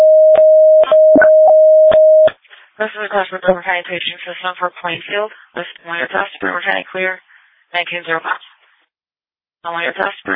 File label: Fire, dispatch; Plainfield